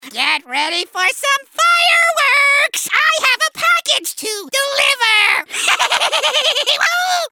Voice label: ELF Evil, cartoon; animation